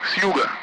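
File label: Speech Sound Russian Common Allied Flight; DCS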